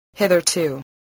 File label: "hitherto", English, spelling